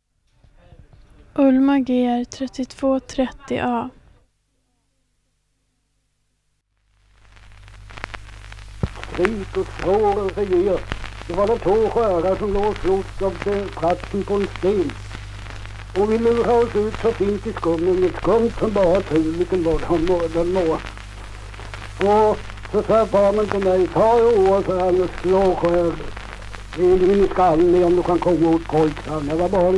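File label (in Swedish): Ventlinge Öland, dialekter; Folkminnen; Svenska sagor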